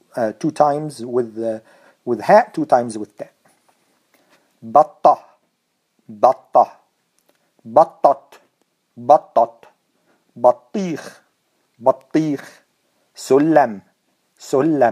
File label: Pronounce, Arabic